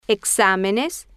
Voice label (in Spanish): "ex-a-me-nes", Spanish